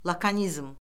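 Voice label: "lakonizm", Azerbaijani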